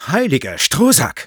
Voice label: positive vocalization, de; "Heiliger Strohsack!"; Sniper